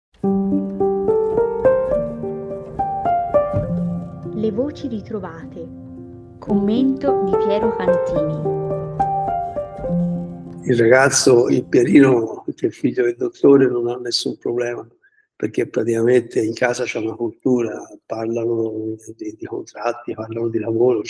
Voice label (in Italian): Narrazione